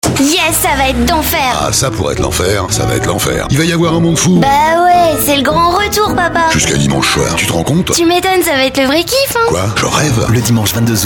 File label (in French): jeune mixte; VOIX SENSUELLE; ARTHUR ET LES MINIMOYS